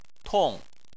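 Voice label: "tong", Mandarin Chinese, fourth tone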